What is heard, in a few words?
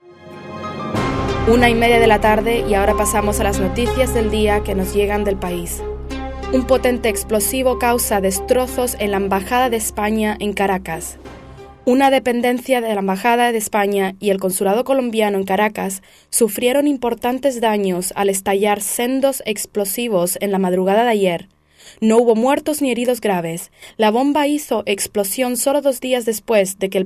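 Spanish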